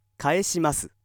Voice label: male